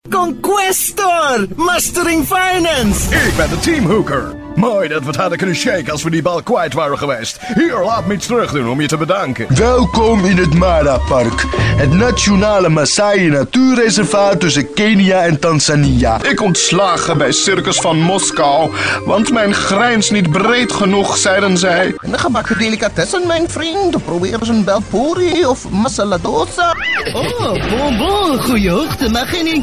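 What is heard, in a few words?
NL M character, Dutch, male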